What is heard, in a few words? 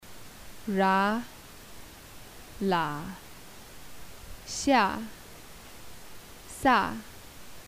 alphabet, Tibetan